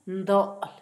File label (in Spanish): corazón, Zapoteco, Asunción Ocotlan; INALI; Haspelmath